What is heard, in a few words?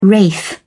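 "wraith", British English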